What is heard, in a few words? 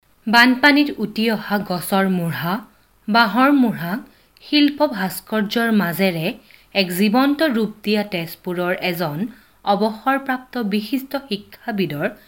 Assamese female